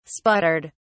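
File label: "sputtered", English, British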